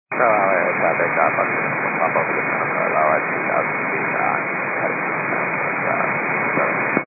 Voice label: 122.925 MHz; scanner radio